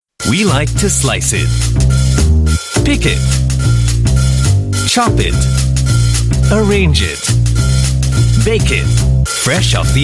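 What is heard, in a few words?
TV commercial, English Singaporean Male; Subway Fresh From The Start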